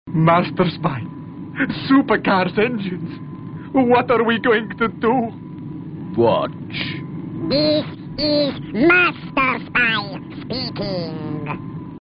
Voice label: elf voice; Masterspy